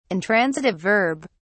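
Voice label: "intransitive verb", English, uk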